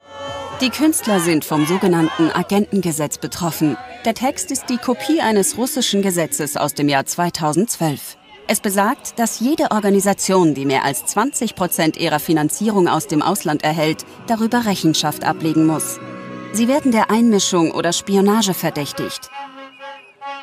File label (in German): Doku Kommentar; arte tracks georgien queer szene